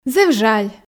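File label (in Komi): "зэв жаль", Komi, female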